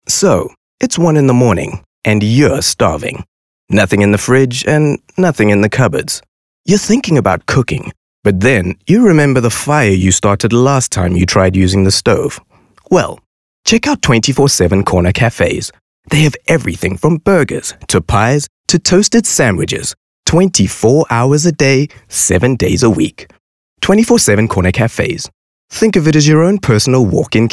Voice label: English conversational